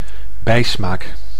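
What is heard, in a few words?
"bijsmaak", Dutch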